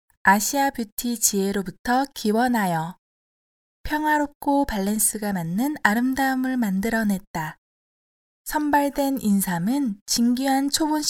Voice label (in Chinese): 外籍韩语女 化妆品广告宣传